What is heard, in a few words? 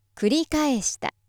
female